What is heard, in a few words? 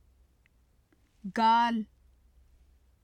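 "gaal", Urdu; گال; [ɡɑːl] (gāl); 頬（男）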